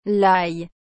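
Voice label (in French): "l ail", French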